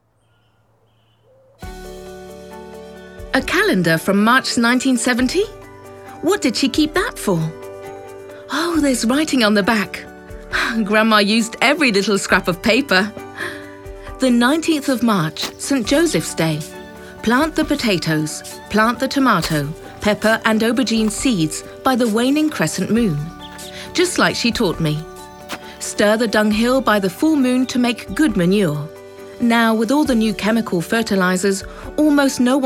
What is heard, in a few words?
audioguia english; vrural